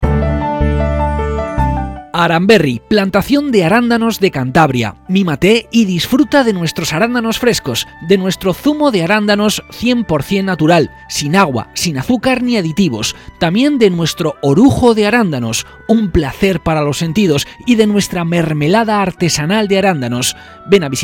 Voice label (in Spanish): anuncio; aranberry